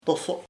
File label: pain, chamacoco; dolor